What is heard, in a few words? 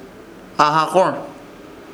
"AHAKOR", Ishir Chamacoco; hacer algo